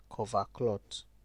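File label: "coverclot", Nigerian Pidgin, male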